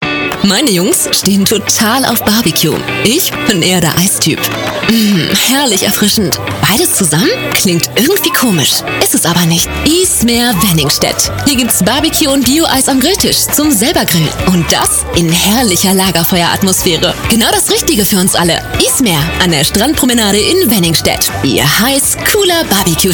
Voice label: enjoying advertising